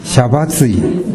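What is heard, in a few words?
"yabatui", Minamiyamagata dialect Japanese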